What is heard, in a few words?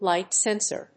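"light sensor", English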